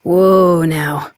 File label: Horse Stop Walk Adult; Duviri